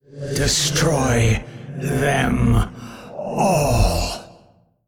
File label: Male; Cthun; Old God